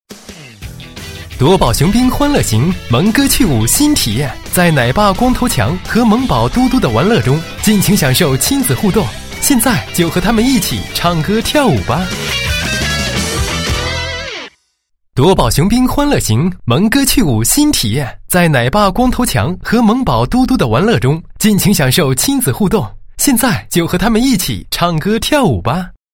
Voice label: 男 宣传广告; 夺宝熊兵 森林越野电锯吉普车

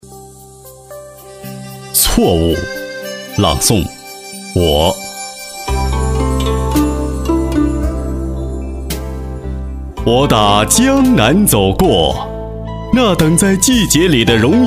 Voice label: 朗诵, 国语男声配音; 错误

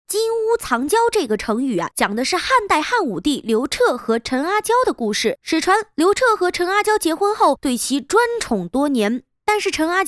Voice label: 女, 短视频; 金屋藏娇的故事

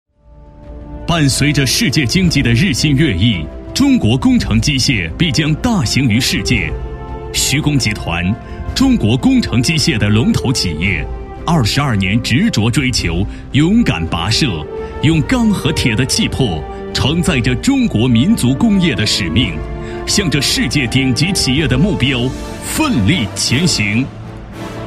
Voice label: 男40 专题 企业宣传, 国语男声配音; 徐工集团

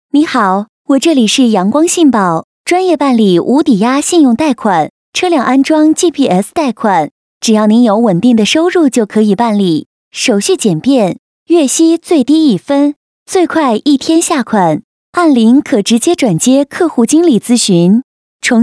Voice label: 女 彩铃, 国语女声配音; 阳光信保

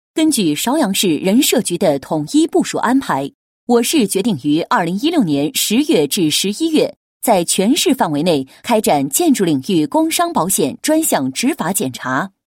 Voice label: 女 通知 关于开展建筑领域工伤保险专项执法检查的通知

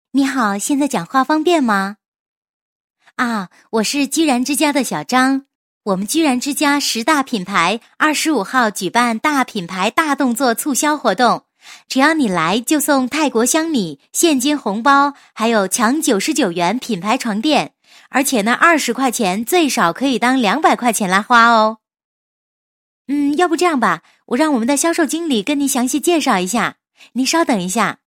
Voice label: 女 彩铃, 国语女声配音; 居然之家

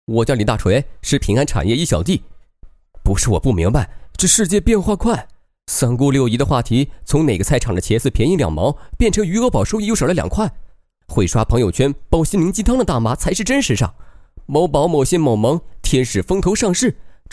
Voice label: 男 幽默活力; 李大锤

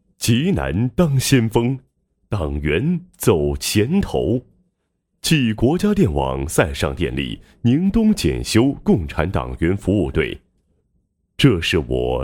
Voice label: 男, 党建; 宁东检修共产党员服务队配音

